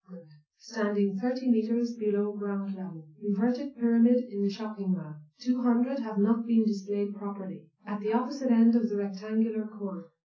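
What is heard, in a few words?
female speech; SiSEC10; underdetermined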